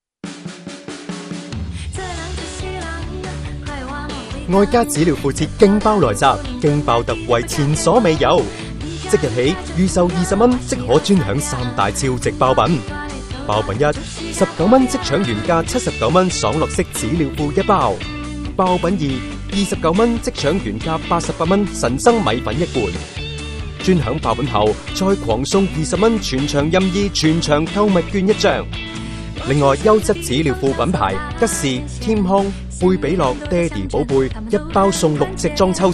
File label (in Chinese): 男【促销】; 粤男; 爱家